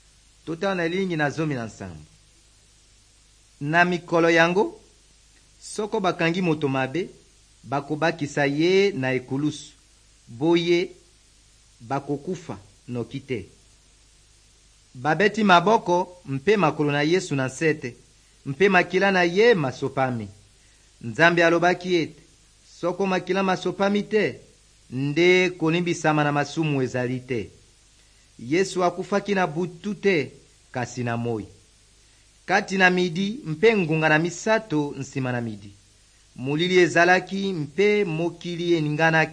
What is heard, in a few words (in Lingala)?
good news lingala west, drama